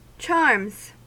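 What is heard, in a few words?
"charms", US English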